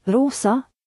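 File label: pink, Serbian; gcompris-data; colors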